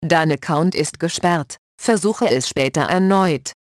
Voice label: Account Locked Try Later, de; EFI Audio